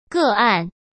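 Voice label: "个案", Mandarin Chinese; chinese-flashcards; hsk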